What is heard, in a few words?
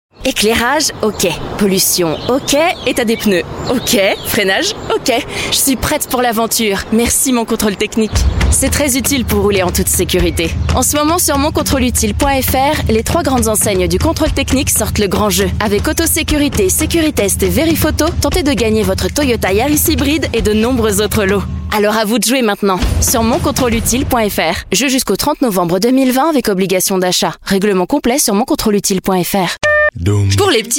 french voice over female commercial; fransizca seslendirme